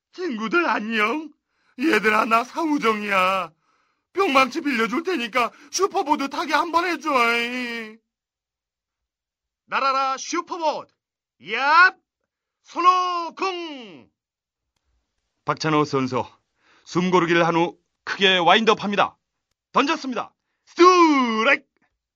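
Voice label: Korean, male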